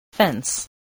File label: "fence", voice